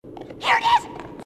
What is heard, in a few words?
here it is, grunts